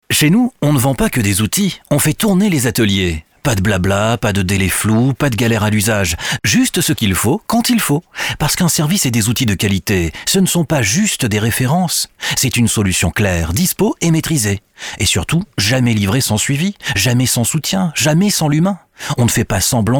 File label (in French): Film Manifeste - Voix Off Corporate Vidéo Entreprise